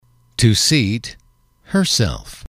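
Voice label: "to seat herself", English, male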